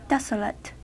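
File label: "desolate", American English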